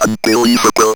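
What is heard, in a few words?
ROBVOX, robot voice; E-Lab; X Static Goldmine 1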